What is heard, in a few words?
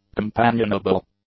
"companionable", English; adjectives